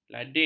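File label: "lading", Malay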